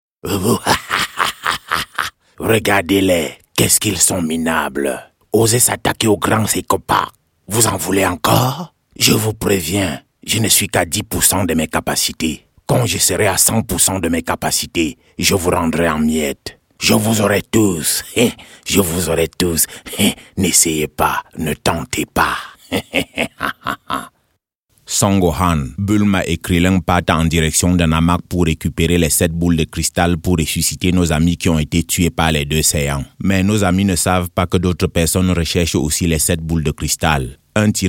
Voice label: African French voice character, African French Male Voice